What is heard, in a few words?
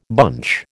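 "bunch", American English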